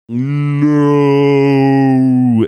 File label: saying nooo drawn out slomo